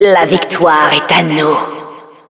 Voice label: we succeeded fr